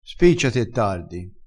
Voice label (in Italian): "spicciassi", vocabolario dialettale